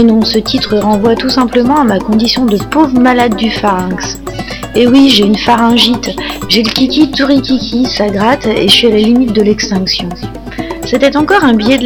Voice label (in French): voix off